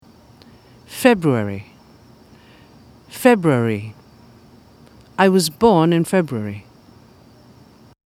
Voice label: february, pronunciation